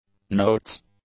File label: "notes", English; nouns